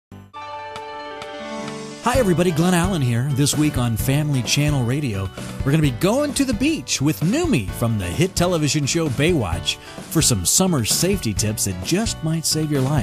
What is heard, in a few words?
Radio 30sec Spot; Family Channel